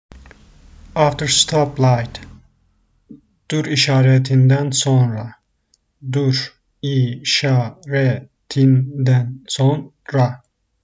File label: after the stoplight, turkish; the talking turkish phrasebook